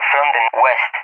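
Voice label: "from the W", English, SPAIN; DCS; Allied Flight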